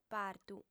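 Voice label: "partu", female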